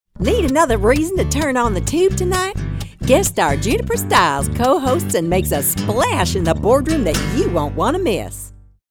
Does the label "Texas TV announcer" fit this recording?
Yes